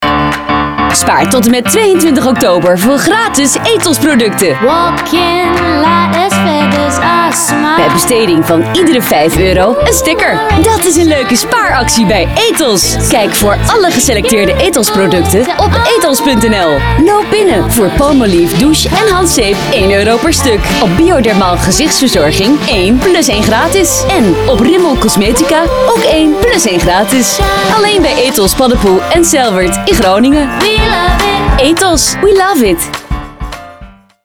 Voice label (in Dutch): Commercial; Etos Week 37-38 OOG RTV